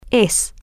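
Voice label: are Permanent, Spanish, female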